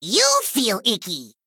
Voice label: Male Gnome Play